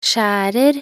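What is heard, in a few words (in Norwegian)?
"skjærer", Norwegian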